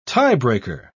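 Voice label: "tiebreaker", British English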